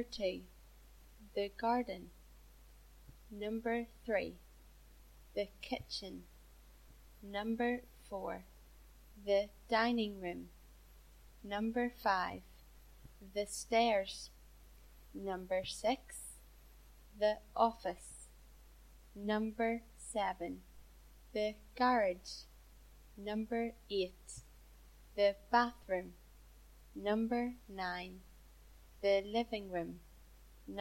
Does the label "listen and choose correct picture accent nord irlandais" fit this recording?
Yes